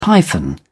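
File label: "python", British English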